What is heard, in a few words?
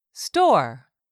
"store", English; 3000 words